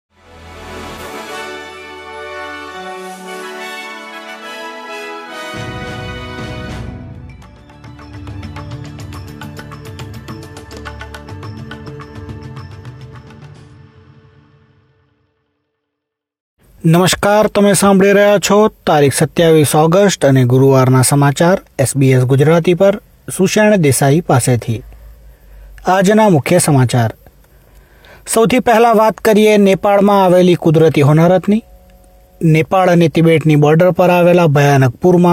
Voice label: gujarati newsflash; SBS Gujarati